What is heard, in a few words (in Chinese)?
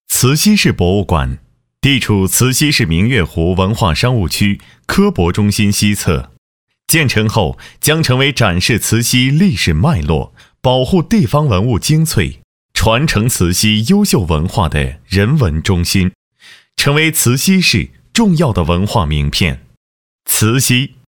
多媒体, 项目规划, 博物馆规划工程, 年轻